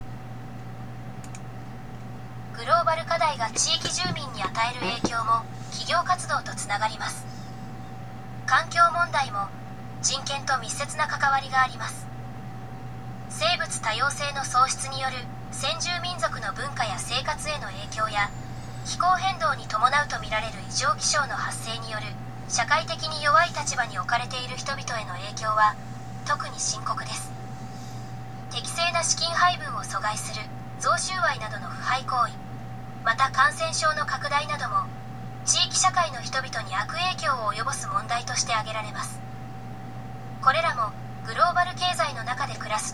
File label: elearning; japan; business and human rights